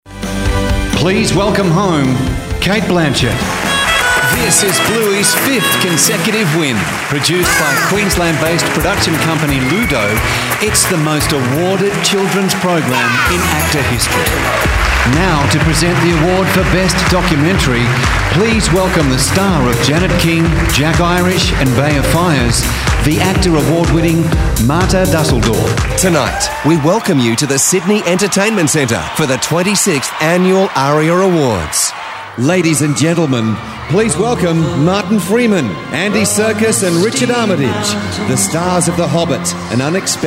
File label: voiceover; EVENTS